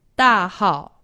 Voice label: "dahao", Chinese; Survival Chinese